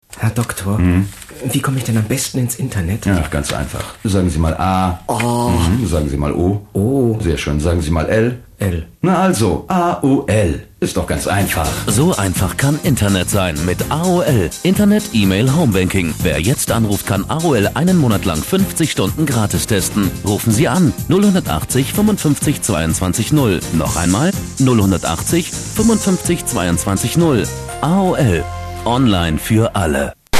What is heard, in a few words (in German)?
spot; aol